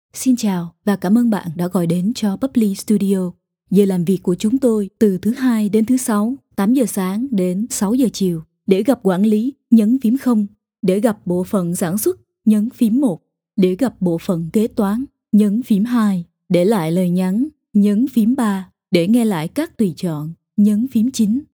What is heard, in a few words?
Thân thiện Thông tin Friendly Informative Phone IVR